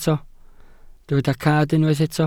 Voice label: "cad", welsh